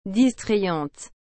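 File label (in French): "distrayante", French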